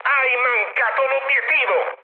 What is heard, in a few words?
bomb failure mood high, speech it; War Thunder